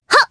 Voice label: Jump, jp; Sonia; kingsraid